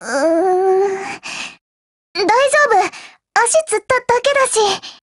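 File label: BREAK Japanese, Voice; GF Alarm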